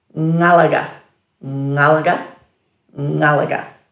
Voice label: "ngalaga", Dhurga